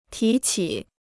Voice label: "提起", Chinese

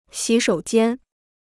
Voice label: "洗手间", Chinese

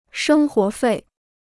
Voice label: "生活费", Chinese

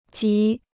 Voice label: "极", Chinese

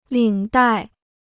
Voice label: "领带", Chinese

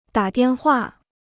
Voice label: "打电话", Chinese

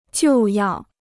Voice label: "就要", Chinese

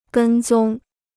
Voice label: "跟踪", Chinese

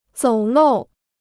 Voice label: "走漏", Chinese

